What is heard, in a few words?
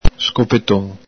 "scopeton", Veneto